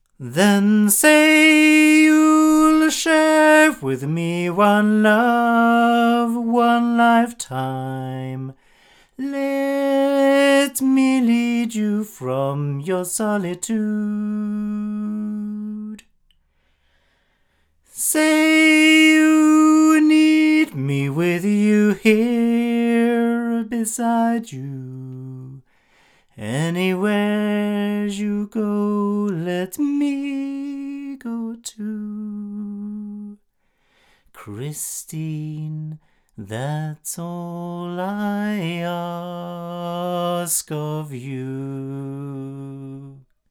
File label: Rode NT2 omni male